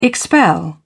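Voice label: "expel", English, British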